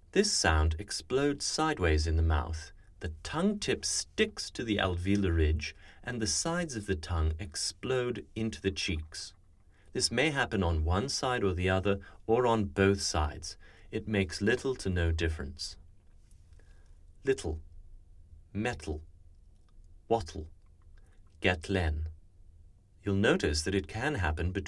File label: Lateral Release TL, RP; speech; dialects